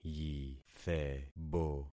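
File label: "yithebo", concatenated syllables; Affixing experiment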